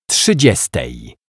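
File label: "trzydziestej", Polish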